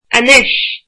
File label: now, irish